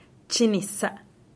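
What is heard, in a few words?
"chinisa", Teotitlán del Valle Zapotec; I am going pee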